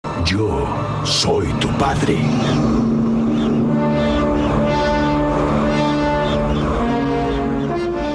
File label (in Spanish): yo soy tu padre, Efecto de sonido; Star Wars; Darth Vader